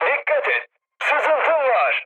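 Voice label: damaged water, mood med, Turkish; speech; War Thunder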